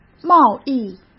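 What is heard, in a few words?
"贸易", Mandarin Chinese; hsk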